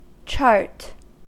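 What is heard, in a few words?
"chart", US English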